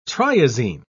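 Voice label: "triazine", British English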